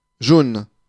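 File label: "jaune", French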